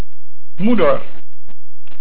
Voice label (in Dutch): "Moeder", Dutch